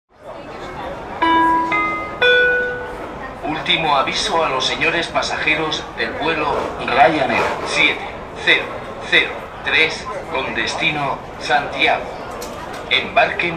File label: ultimo avisto, Flughafen Ansagen